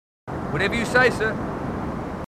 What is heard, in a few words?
marines, aussie; h2dialogue